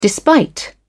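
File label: "despite", British English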